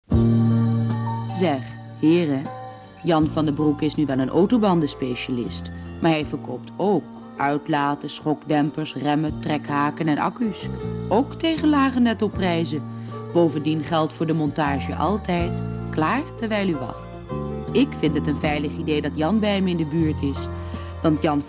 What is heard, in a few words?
commercial